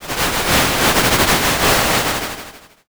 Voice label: whisper